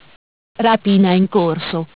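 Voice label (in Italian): "Rapina in Corso", Italian; Bentel; BLE320; MESSAGGI VOCALI; Audio BGS220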